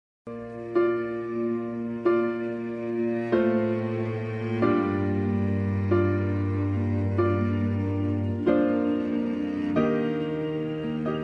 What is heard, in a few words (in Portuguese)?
aniver filha masc, Telemensagem de Aniversário de Filha – Voz Masculina